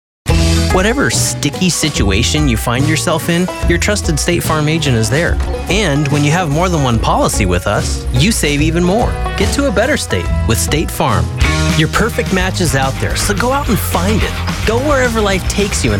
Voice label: Commercial